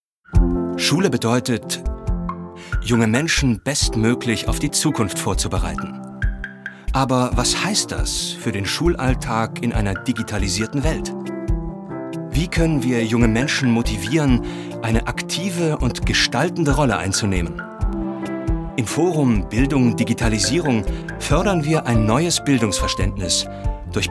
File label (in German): Imagefilm; ForumBD